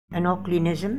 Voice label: "Anoklinism", Beelzebub pronounciations; Fourth Way